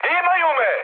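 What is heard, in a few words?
Serbian aircraft destroyed mood high; speech; War Thunder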